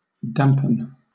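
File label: "dampen", British English; pronunciations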